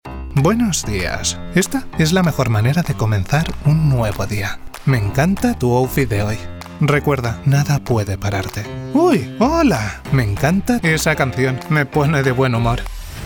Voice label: VOICE SPANISH